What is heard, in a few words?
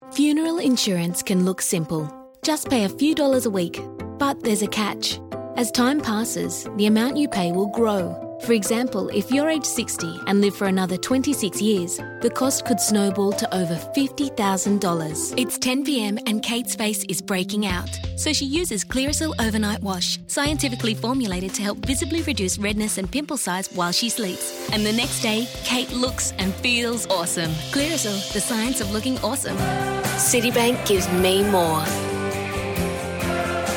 soft sell; EM Voices